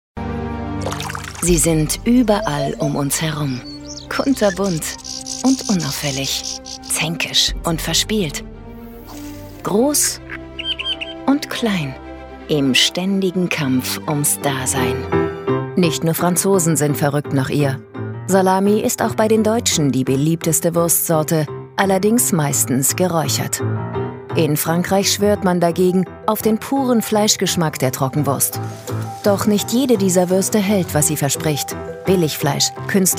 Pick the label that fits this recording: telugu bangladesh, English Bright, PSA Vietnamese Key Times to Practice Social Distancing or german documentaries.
german documentaries